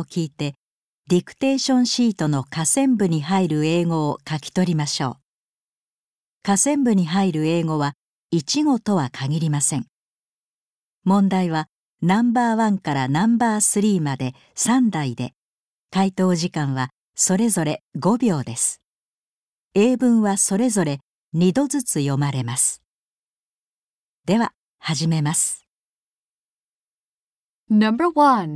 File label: dictation